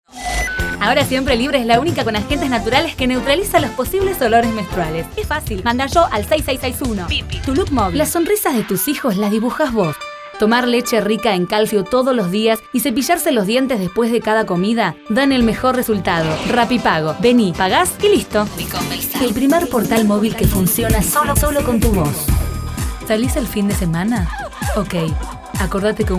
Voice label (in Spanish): Mujeres, Locutoras; Casting Impulse